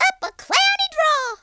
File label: "oh dear", Cowgirl; speech